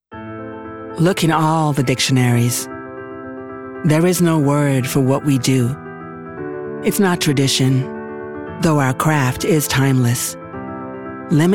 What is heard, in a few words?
US Reel